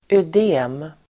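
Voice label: "ödem", Swedish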